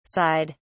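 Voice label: "sighed", British English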